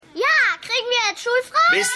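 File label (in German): Mädchen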